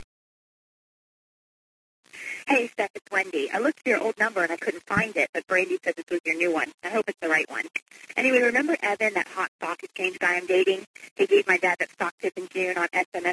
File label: phone message